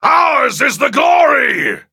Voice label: upgrade, en; Cookie Run

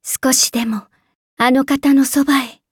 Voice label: levelup, ja; Cookie Run

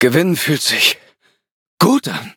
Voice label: victory, de; cookierun

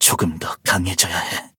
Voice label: kohlrabi levelup, ko

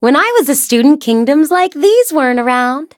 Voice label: touch, en; cookie run

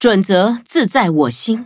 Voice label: loading, zh-hant; cookierunwiki

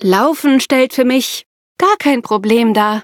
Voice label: loading, de; cookie run

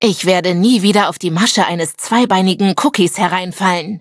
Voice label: loading, de; cookie run

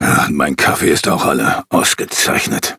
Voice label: defeat, de; cookie run

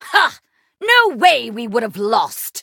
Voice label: victory, en

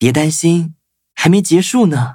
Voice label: defeat, zh-hant; cookierun

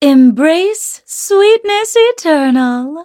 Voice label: battle en

